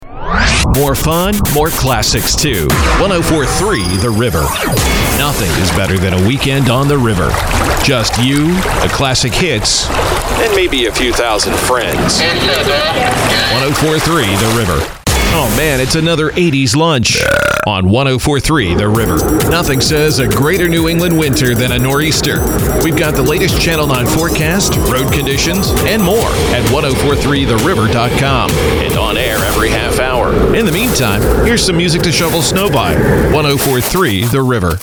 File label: Radio Imaging